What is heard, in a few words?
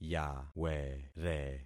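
"yawere", concatenated syllables; Affixing experiment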